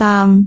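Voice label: "daam6", Cantonese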